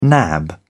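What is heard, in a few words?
"nab", British English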